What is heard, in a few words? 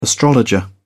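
"astrologer", British English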